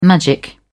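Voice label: "magic", British English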